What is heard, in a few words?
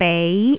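"bei2", Jyutping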